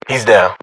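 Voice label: British inform killfirm generic; battlechatter; localized english; uk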